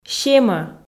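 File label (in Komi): "семӧ", Komi, female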